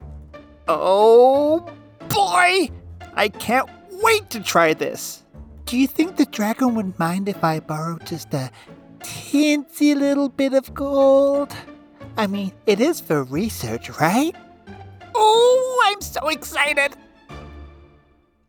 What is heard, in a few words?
Kid adventurer cartoon